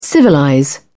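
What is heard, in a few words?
"civilize", British English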